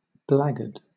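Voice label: "blackguard", English, British accent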